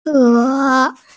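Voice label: "ke3", Mandarin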